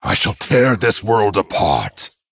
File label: Male Dragon Picked